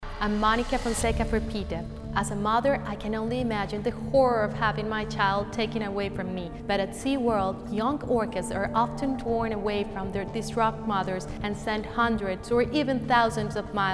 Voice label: radio; SeaWorld; Monica Fonseca; Eng

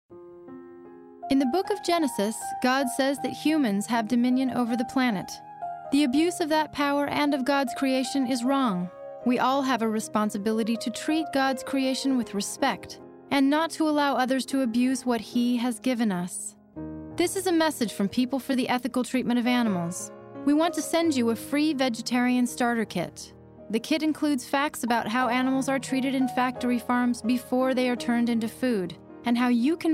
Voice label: radio spot; christianity

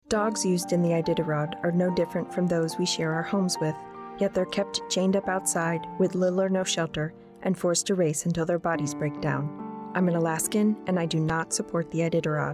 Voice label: radio spot; alaska

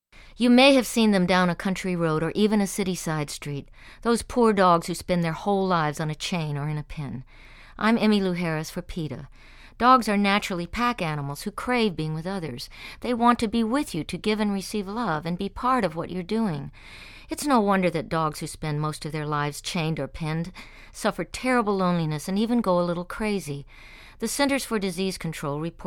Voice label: anti chaining psa; emmylou harris

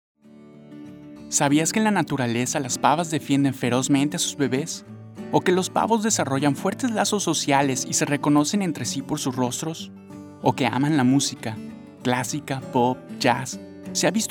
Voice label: radio psa spanish; thanksgiving; peta

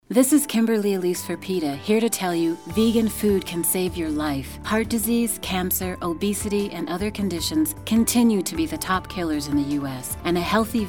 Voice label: radio psa; kimberly elise

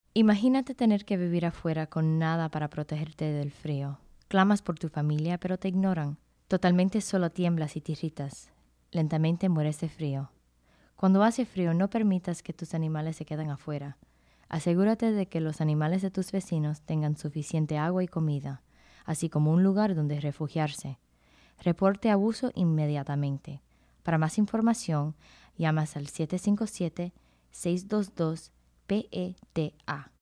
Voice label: Cold Weather PSA Spanish

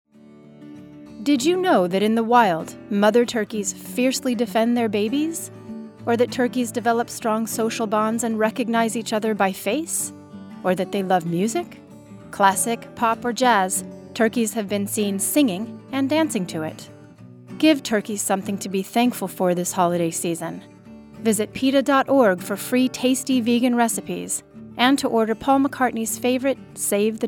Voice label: thanksgiving radio psa; peta

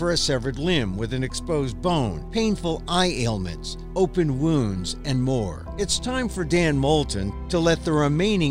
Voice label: chinchilla facility mill investigation radio psa

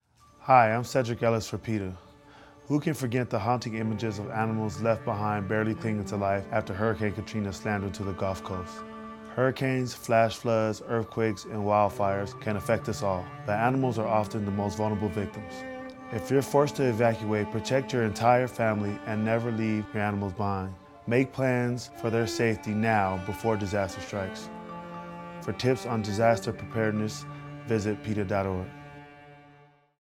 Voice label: natural disasters psa; sedrick ellis

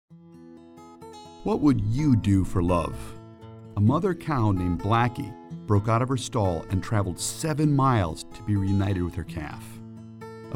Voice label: radio spot male; valentines day 2017

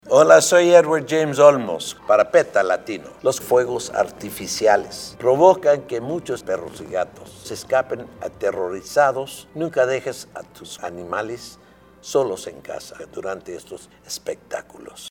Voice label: spanish psa; edward james olmos; fireworks; peta latino

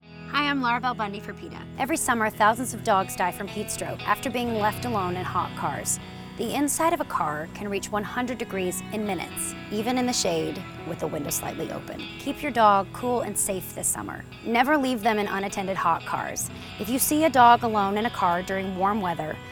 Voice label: radio psa; laura bell bundy; hot dog

